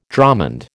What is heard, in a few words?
"dromond", American